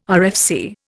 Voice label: "RFC", Indian